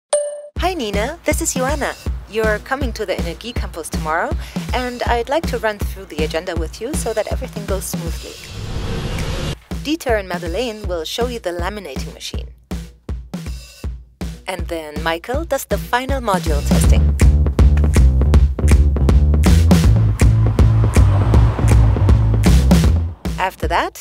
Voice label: en character imagefilm; energie campus nurnberg